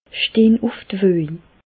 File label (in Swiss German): woo, Bas Rhin; medecine